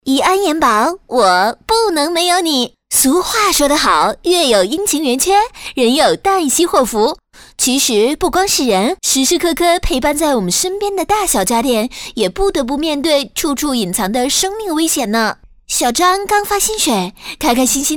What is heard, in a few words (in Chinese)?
女国 延保病毒视频; 宜安延保(病毒视频)